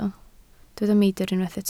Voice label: "meidr", Welsh